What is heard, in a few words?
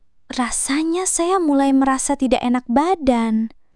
indonesia, negative phrases